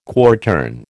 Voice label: "quartern", American

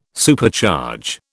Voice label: "Supercharge", British

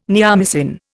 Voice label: "neomycin", Indian

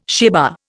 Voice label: "sheva", Indian

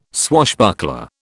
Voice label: "swashbuckler", British

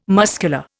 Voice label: "muscular", Indian

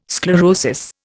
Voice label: "sclerosis", Indian

